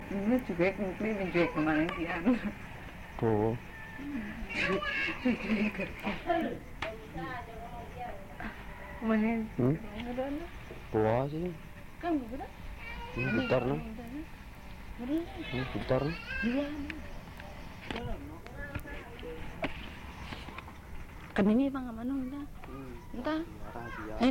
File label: conversation; percakapan; a lady